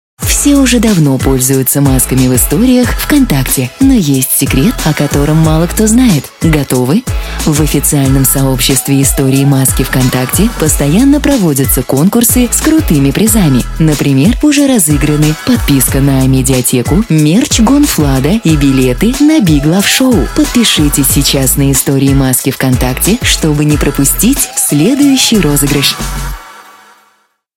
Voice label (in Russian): Реклама